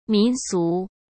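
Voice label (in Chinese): "民俗", Mandarin Chinese; chinese flashcards; hsk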